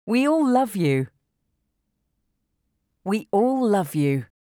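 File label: We all love you, English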